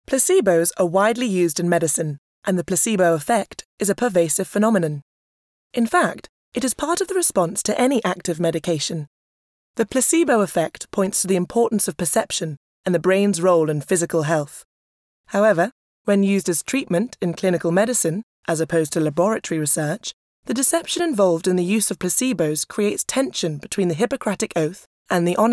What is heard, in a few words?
Didactic Narration